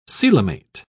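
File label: "coelomate", English, British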